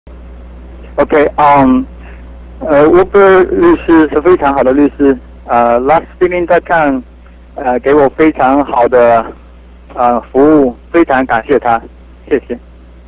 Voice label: chinese